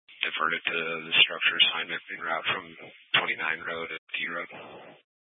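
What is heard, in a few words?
Incident Tac 2, Scanner audio recording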